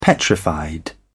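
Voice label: "petrified", English, British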